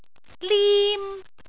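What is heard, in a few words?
"lim1", Cantonese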